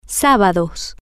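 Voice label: "sa-ba-dos", Spanish, female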